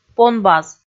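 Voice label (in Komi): "понбаз", Komi, female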